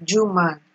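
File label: "jew man", Nigerian Pidgin, female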